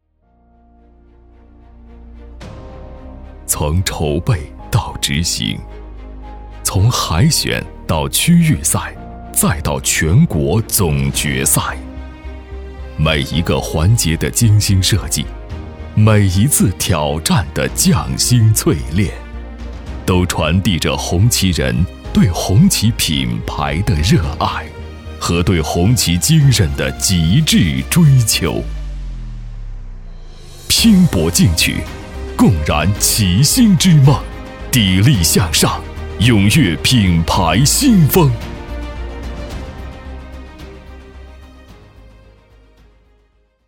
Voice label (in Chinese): 男, 时尚宣传片